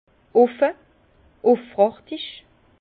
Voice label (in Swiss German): "offe", Bas Rhin; franc; vin